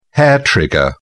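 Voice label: "hair trigger", British English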